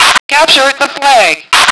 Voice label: fem capit; lmctf